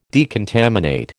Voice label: "decontaminate", American